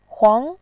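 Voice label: "huang", Mandarin Chinese, second tone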